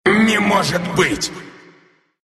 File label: death, ru; dota2